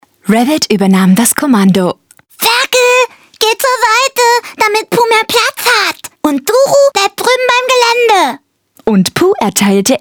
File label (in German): Trickstimme; werbesprecherin